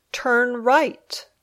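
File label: "Turn right", English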